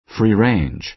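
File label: "free-range", American English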